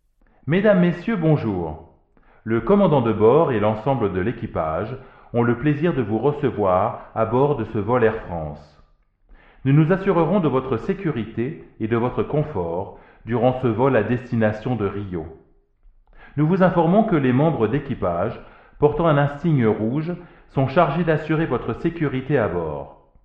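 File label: French Announcement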